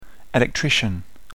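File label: "electrician", English; jobs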